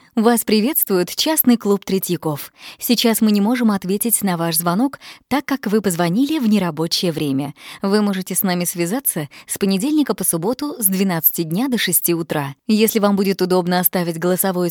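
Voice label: Female Russian ivr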